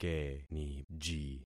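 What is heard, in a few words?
"keniji", concatenated syllables; Affixing experiment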